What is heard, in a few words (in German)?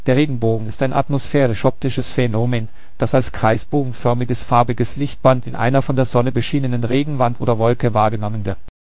speaker, de DE; piper-voices